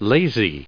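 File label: "lazy", US English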